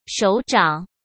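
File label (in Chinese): "手掌", Mandarin Chinese; hsk; chinese-flashcards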